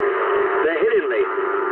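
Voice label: aircraft damaged mood low, speech English; War Thunder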